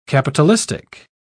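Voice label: "capitalistic", English, British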